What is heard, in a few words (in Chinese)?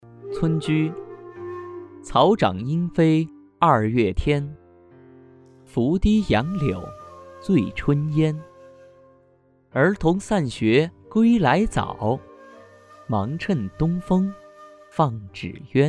村居 范读